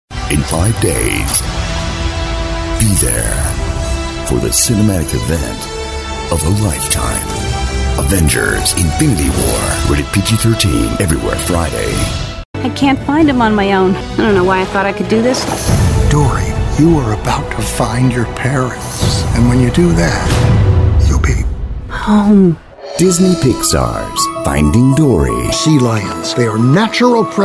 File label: FILM TRAILER REEL